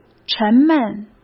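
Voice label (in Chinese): "沉闷", Mandarin Chinese; chinese flashcards; hsk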